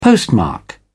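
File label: "postmark", British English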